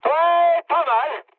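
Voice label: French trick mood med; War Thunder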